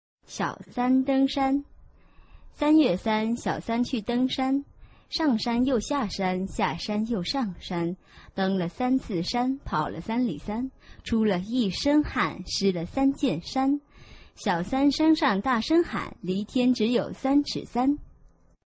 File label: chinese tongue twister